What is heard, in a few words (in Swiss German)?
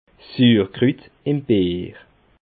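"suurkrut", Bas Rhin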